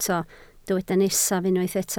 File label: "nesaf", Welsh